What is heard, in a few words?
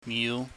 "miu", pingyam